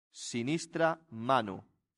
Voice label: "sinistra manu", latin; santillana

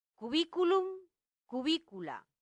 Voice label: "cubiculum cubicula", latin; santillana

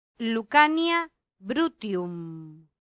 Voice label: "lucania bruttium", Latin; santillana

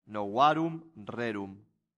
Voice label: "novarum rerum", latin; santillana

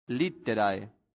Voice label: "litterae", latin; santillana

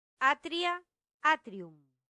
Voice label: "atrium atria", latin; santillana

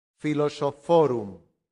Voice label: "philosophorum", latin; santillana

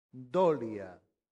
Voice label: "dolia", latin; santillana

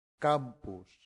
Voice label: "campus", Latin; santillana

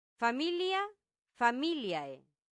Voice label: "familia familiae", latin; santillana

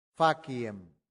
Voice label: "faciem", Latin; santillana

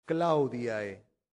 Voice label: "claudiae", latin; santillana

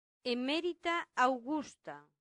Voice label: "emerita augusta", latin; santillana

